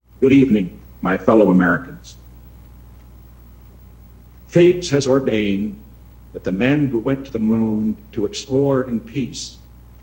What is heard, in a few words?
Converted voice; Richard Nixon; Respeecher